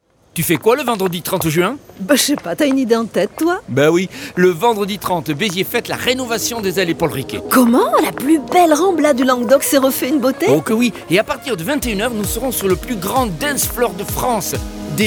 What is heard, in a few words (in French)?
spot radio; beziers inauguration allees paul riquet